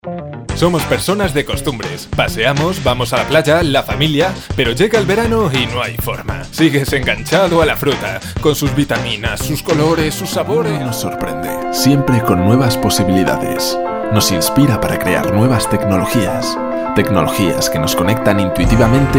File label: spanish